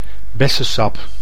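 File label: "bessesap", Dutch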